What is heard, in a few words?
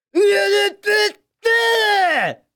Speech Quick Commands Caramba; World Of Warships